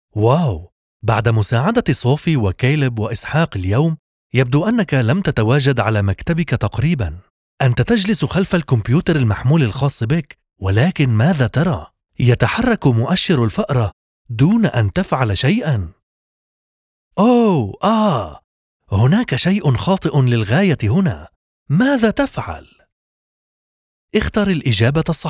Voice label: arabic others